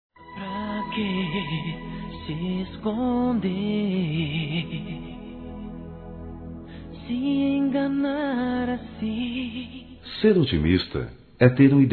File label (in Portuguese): OTIMISMO ROMANTICO M; Telemensagens; masc